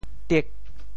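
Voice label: "tiek8", Teochew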